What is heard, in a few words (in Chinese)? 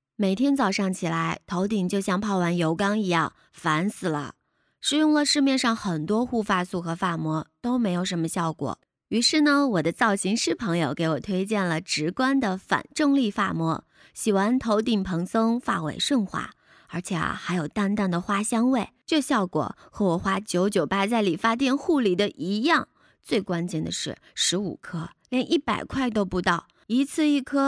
发膜, 特色治愈音，舒适音, 青年（18-35）, 普通话, 女; 植观反重力发膜美梦